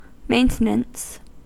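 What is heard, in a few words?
"maintenance", US English; pronunciations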